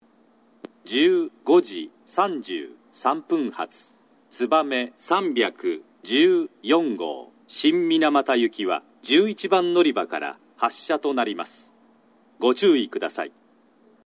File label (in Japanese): 鹿児島中央駅 のりば変更放送（つばめ314号 新水俣行き）※男声放送; 九州新幹線SIRIUS（シリウス）放送; 放送は、遅延放送と異なり単独で流れ、案内放送などにある冒頭のチャイムもありません。